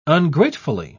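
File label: "ungratefully", British English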